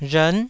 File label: "rhern9", taiwanese